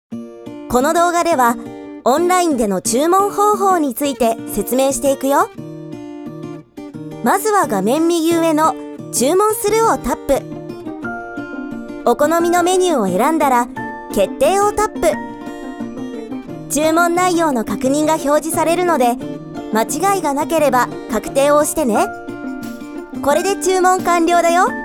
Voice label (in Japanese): 研修動画 （アニメーション）